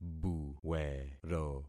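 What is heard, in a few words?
"buwero", concatenated syllables; Affixing experiment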